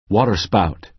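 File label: "waterspout", American English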